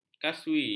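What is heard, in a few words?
"kaswi", Malay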